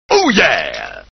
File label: macho man oh yeah sound